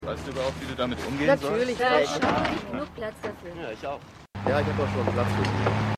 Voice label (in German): Wartende Leute M1 F1 F2 M2 Passant; AusgerechnetAlaska